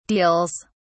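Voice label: "deals", British English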